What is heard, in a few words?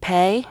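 "pay", Nonnative, American English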